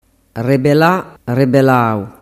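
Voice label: "rebelalau", Sicilian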